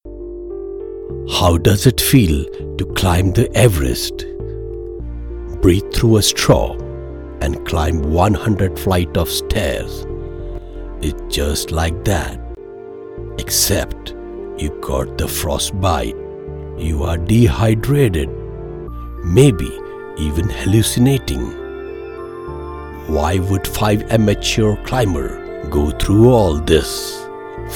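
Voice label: TV Promo english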